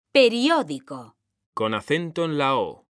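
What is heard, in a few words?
"periódico", Spanish; mekanta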